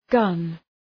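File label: "gun", English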